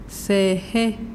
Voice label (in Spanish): "estrella", mazahua